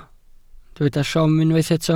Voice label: "siom", Welsh

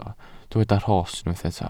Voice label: "rhos", welsh

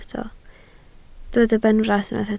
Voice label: "benfras", Welsh

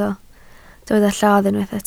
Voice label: "lladd", Welsh

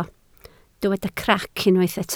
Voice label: "crac", Welsh

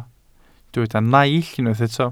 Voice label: "naill", welsh

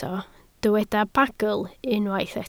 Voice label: "bagl", Welsh

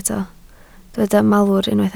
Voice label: "malwr", Welsh

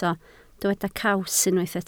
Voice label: "caws", Welsh

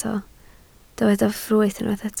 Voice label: "ffrwyth", Welsh

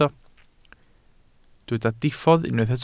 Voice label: "diffodd", Welsh

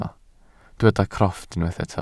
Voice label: "crofft", welsh

